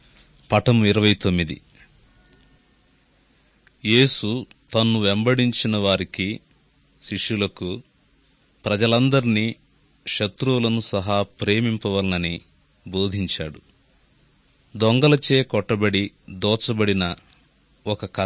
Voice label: good news telugu